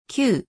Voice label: "きゅ", 日本語; 基礎日語五十音聽力

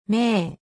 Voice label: "め", 日本語; 基礎日語五十音聽力

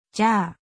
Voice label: "じゃ", 日本語; 基礎日語五十音聽力

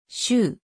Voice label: "しゅ", 日本語; 基礎日語五十音聽力

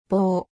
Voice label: "ぼ", 日本語; 基礎日語五十音聽力

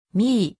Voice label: "み", 日本語; 基礎日語五十音聽力

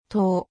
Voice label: "と", 日本語; 基礎日語五十音聽力

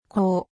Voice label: "こ", 日本語; 基礎日語五十音聽力

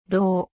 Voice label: "ろ", 日本語; 基礎日語五十音聽力

